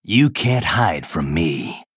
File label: Male Night Elf Play